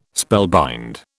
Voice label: "spellbind", British